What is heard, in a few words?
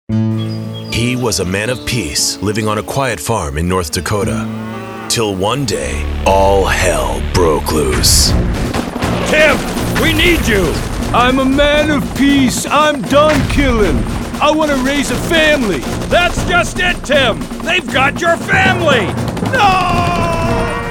Bogus Commercial; Exploder; Super CFL